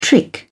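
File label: "trick", British English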